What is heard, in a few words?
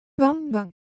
Japanese Sound, Ideo Phones